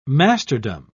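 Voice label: "masterdom", British English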